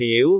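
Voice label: "fiu2", Cantonese; google D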